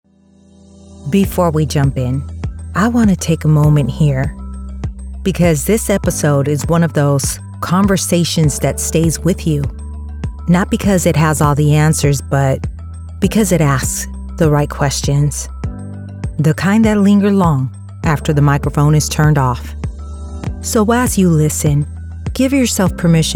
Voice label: Podcast Narration, Podcast Narration - Sincere, Female Voice; Podcasting